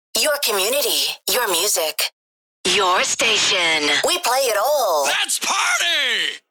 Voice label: Vocals radio voice sweeper we play it all

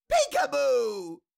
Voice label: Cartoon little monster voice no peekaboo

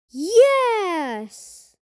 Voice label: Yes vocalization boy child kid

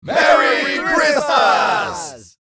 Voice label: Mixed crowd merry christmas

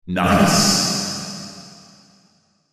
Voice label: Nice extreme reverb; meme

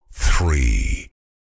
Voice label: Deep male voice three

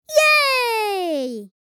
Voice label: Yay excited boy child kid

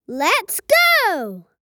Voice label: Lets go boy child kid

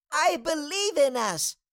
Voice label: Cartoon little child voice; i belive in us

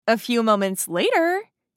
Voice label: A few moments later meme voice female; social media; Meme Sounds

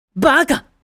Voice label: Idiot anime boy character

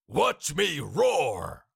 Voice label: Cartoon lion voice; watch me roar

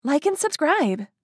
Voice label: Like and subscribe social media female; shorts sound effects

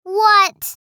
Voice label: "What", girl child kid

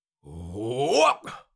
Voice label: Cartoon lion voice "jump"

